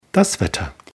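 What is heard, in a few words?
"wetter", German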